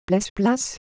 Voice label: Semai, ideophones

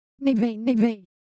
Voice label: Ewe Motion, Ideo Phones

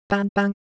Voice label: Japanese Shape No Pros, Ideo Phones

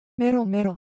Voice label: Japanese Color Visual, Ideo Phones

